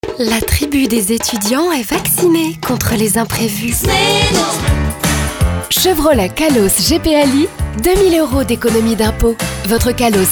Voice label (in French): publicite